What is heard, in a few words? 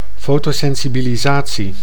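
"fotosensibilisatie", Dutch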